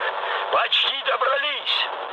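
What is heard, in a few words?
Russian near waypoint mood high, speech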